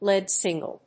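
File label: "lead single", English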